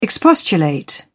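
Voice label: "expostulate", British English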